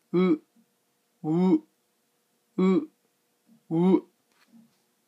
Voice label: sound u japanese, pronunciation

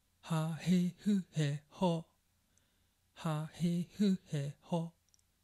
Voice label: ha hi hu he ho, pronunciation

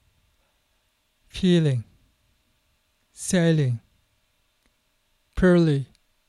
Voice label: feeling sailing, pronunciation

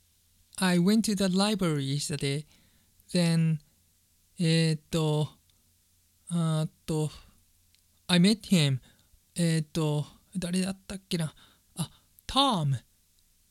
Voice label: filler example, pronunciation

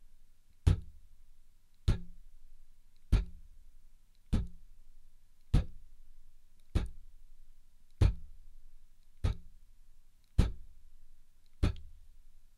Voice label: sound p, english pronunciation

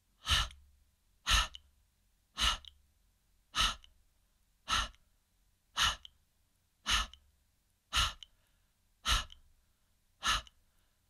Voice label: sound h, pronunciation

